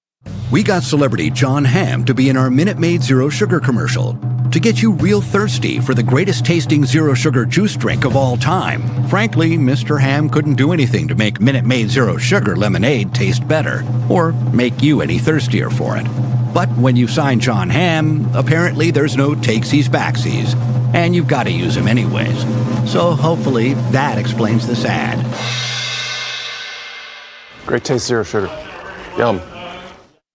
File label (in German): TV Werbespots Online Werbespots Breites Publikum